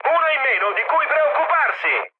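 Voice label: Italian player killed enemy mood high, speech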